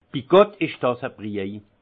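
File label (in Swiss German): "bigott", alsace Haut-Rhin; vin; mon dieu quelle soupe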